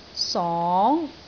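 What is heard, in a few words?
"song2", Cantonese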